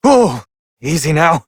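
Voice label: Adult, English; New War; Orbiter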